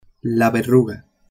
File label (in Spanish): "verruga", Spanish